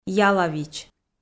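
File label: "ялавич", Komi, female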